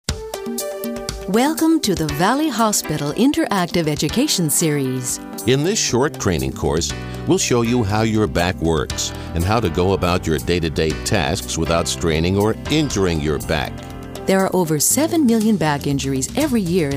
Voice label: narration